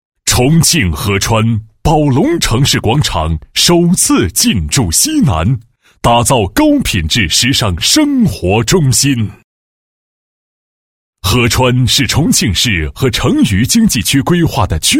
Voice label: 男 地产专题, 大气; 国语男声配音

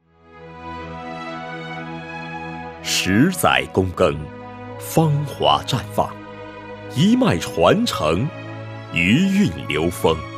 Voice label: 男 政府专题 纪实 浑厚 深沉

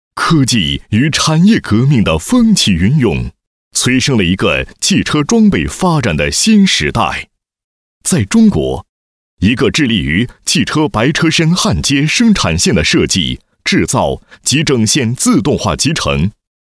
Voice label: 男 企业宣传 宣传片解说配音; 筑梦科斯特

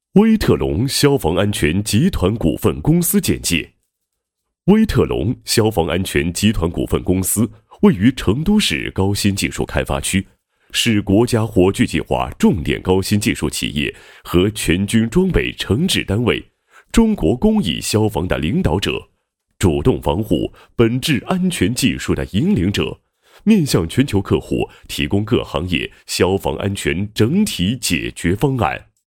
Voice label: 男, 企业宣传 消防安全公司宣传简介; 威特龙集团

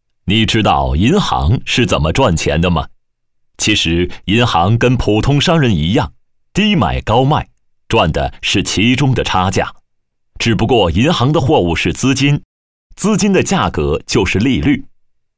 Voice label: 男2 课件, 国语男声配音; 银行利率

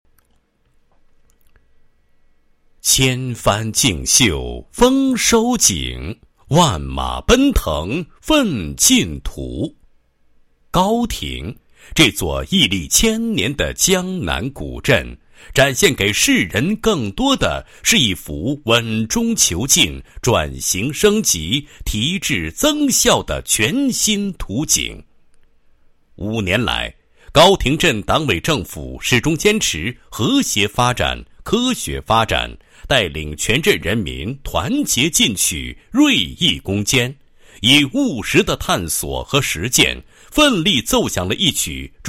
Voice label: 男 专题 江南古镇专题片

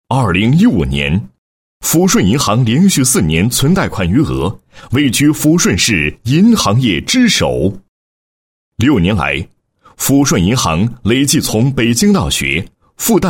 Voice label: 男 颁奖 颁奖词; 抚顺银行

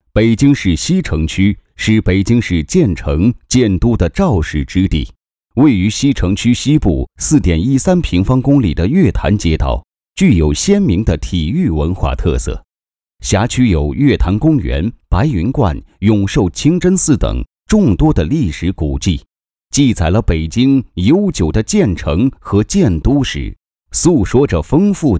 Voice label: 男, 专题; 北京市白云杯

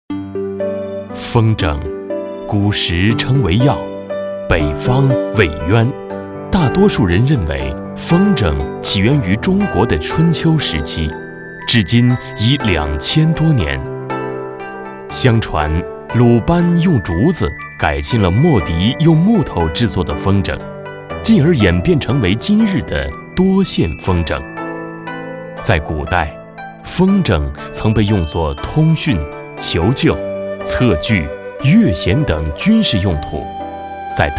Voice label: 男, 纪录片, 文化感题材; 风筝讲述自然

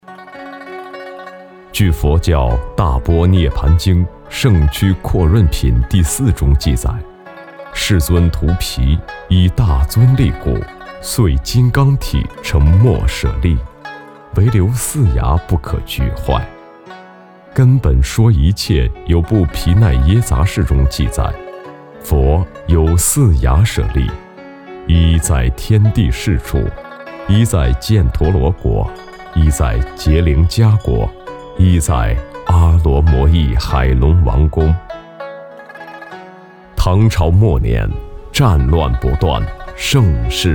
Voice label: 男-记录; 佛牙流转

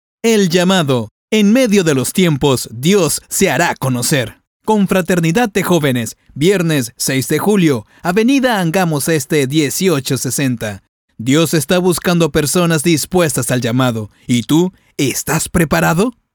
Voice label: 西班牙语男 干音 宣传片

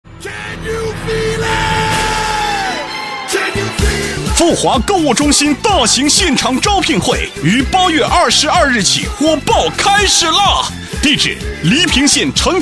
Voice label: 男 促销 购物中心; 国语男声配音; 富华购物中心

